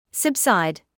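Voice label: "subside" English, British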